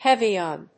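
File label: "heavy on", English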